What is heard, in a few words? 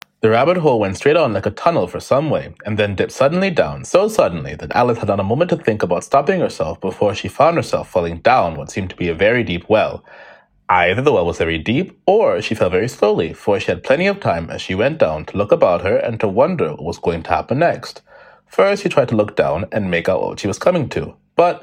English Audio